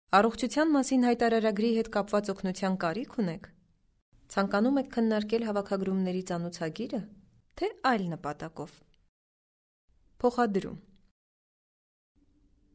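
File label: Armenian female Narration; voice over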